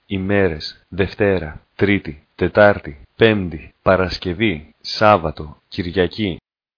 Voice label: greek